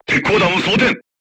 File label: voice message load AP, crew tanks japanese